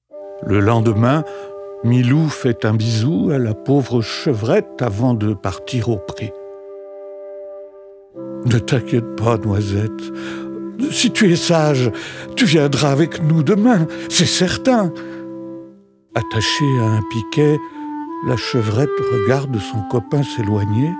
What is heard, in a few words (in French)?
Histoire, Histoires pour les petits